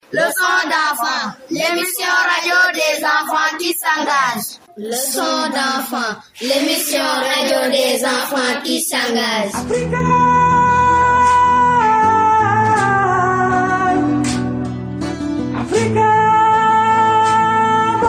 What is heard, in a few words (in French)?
Message d'accueil